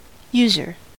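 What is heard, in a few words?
"user", American English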